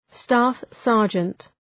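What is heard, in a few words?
"staff-sergeant", English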